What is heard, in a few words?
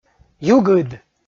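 "югыд", Komi, male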